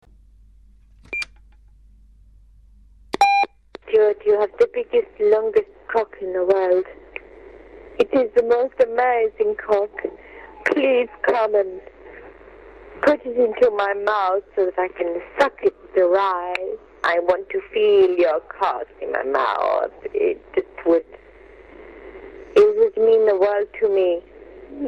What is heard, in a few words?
phone message